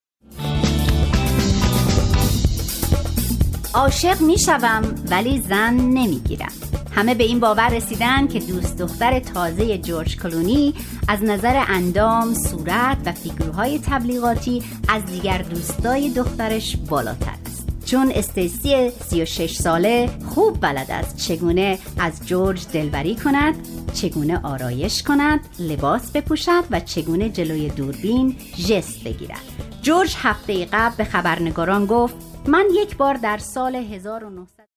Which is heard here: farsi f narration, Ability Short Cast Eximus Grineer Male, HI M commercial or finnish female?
farsi f narration